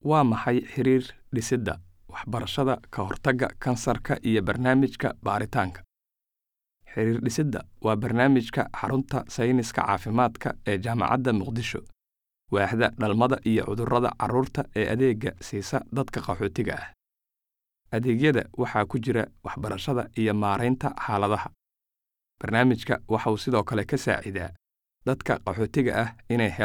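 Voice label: Somali General; GoLocalise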